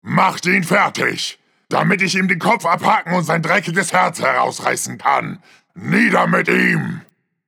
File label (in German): Ork Krieger Pitch shift